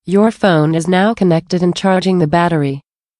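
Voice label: Phone connected, female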